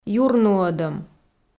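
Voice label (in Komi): "юрнуӧдӧм" Komi, female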